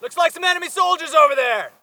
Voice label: spot; bf3